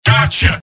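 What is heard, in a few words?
"yes", robot; mvm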